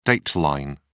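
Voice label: "date line", English, British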